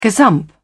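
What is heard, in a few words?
"gazump", British English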